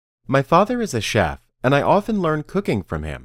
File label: Dictation